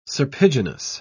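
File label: "serpiginous", British English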